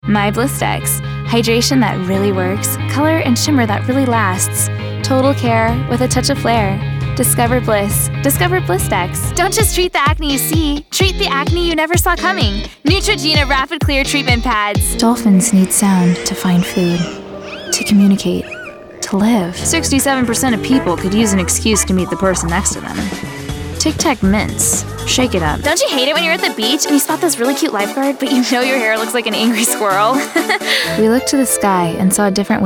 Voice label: women commercial; Sounds like a unicorn – magically conversational.